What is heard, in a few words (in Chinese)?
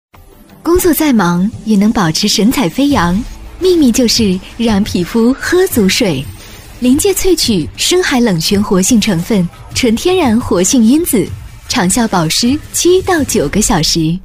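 女 品牌广告【知性温婉】; 温柔知性; 冷泉姿韵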